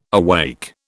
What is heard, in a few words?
"awake", British